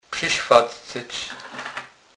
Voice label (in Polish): "przyswadcec", kurpie